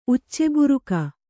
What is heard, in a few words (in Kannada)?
"ಉಚ್ಚೆಬುರುಕ", Kannada